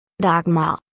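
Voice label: "Dagmar", German; maedchennamen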